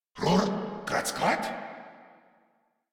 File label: Surprised, Grineer Male